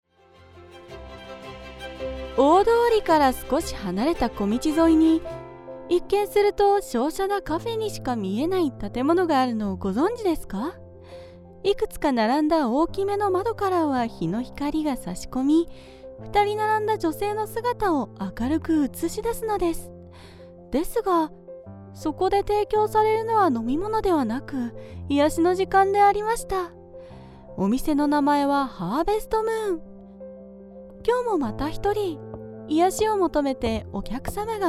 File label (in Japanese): ナレーション